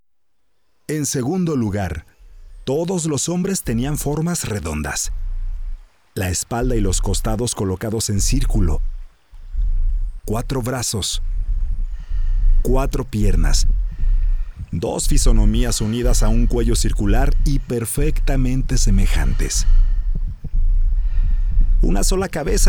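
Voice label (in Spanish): Español - México - Hombre; VoiceBunny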